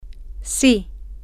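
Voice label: yes, Spanish, female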